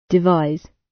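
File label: "devise", British English; Design and innovation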